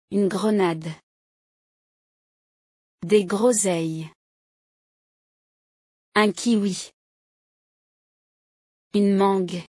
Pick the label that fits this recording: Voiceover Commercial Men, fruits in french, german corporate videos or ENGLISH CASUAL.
fruits in french